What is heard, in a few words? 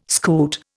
"scoot", Indian